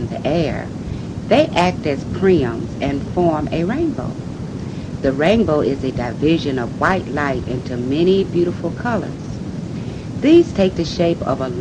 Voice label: kentucky, dialects